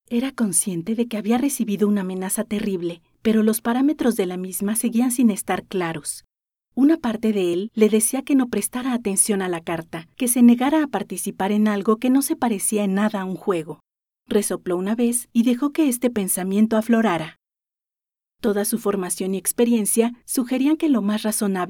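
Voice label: Narration Spanish Female, Upbeat, Energetic, Emotive; Narration, Corporate, Documentary, International Voiceover, Spanish (Latin and Castillian)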